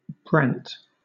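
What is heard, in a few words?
"brant", British English; pronunciations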